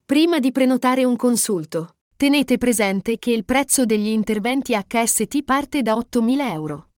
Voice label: Vocal italian